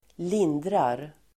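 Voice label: "lindrar", Swedish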